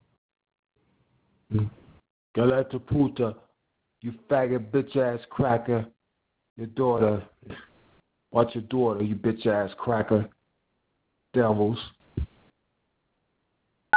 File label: Voice Message